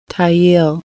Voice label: go upstream, Lushootseed